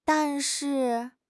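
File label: "dan4 shi4", Chinese; HSK; HSK2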